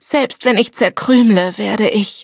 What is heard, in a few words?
defeat, de